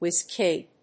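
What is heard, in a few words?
"whiz kid", English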